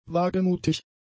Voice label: "wagemutig", German; adjectives